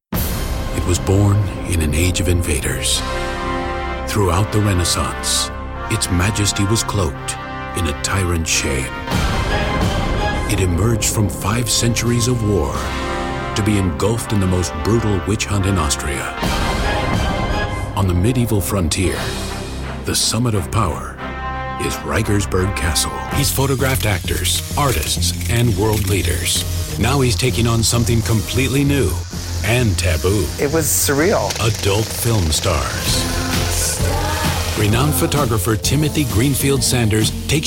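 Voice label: M, American English, male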